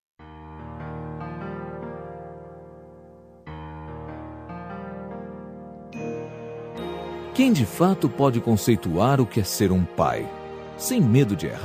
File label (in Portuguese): Aniv. de Padrasto M